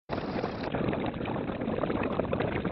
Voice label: a full length audiobook reading compressed into two seconds; moby dick